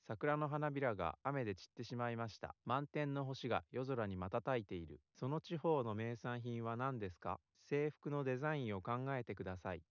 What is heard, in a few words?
male liverec 250ms src